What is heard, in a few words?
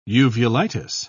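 "uvulitis", British English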